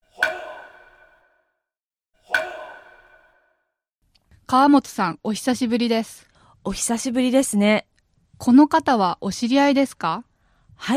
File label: dialog; JapanesePod101; Beginner Season 1